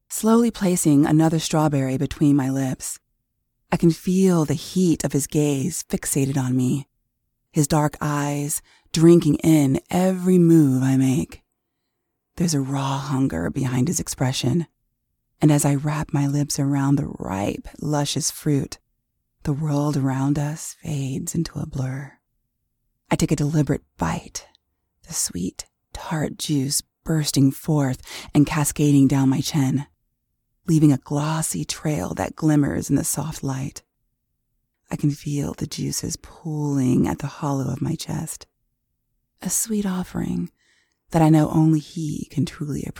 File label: Romance Teasing Female